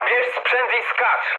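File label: bailout, mood low, Polish speech; War Thunder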